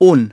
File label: "one", masculine; iOS 4